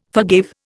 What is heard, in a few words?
"forgave", Indian